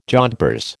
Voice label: "jodhpurs", American